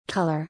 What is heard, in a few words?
"Color", English